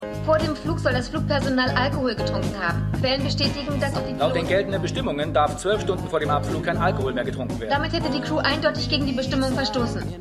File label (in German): Nachrichtensprecherin